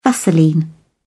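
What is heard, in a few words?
"vaseline", British English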